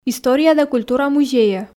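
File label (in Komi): история да культура музейӧ, Komi, female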